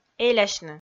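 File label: "элясьны" Komi, female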